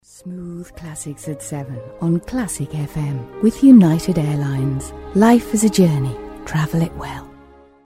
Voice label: Commercial; Classic fm